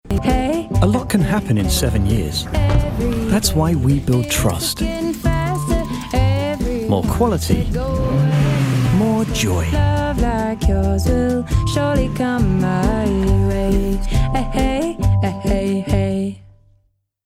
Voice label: male american english comercial